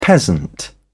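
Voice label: "peasant", British English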